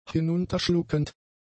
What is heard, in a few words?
"hinunterschluckend", German; adjectives